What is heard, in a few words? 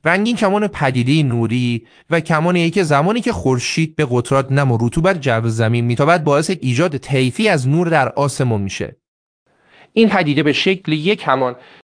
fa IR medium samples speaker